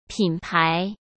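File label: "品牌", Mandarin Chinese; chinese flashcards; hsk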